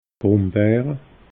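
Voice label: "grumbeere", Alsatian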